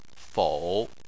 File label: "fou3", Chinese